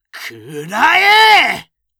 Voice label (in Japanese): くらえっっ！！, 戦闘ボイス（青年・中音）